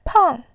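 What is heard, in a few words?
"pang", Mandarin, tone 4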